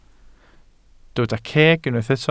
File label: "ceg", Welsh